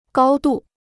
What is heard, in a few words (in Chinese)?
"高度", Chinese